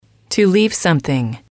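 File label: "to leave something", English, female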